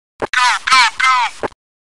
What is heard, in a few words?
go go go, radio commands; counter strike